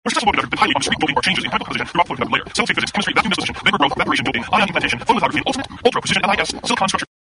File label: comm garbled speech